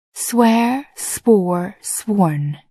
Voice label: "swear", English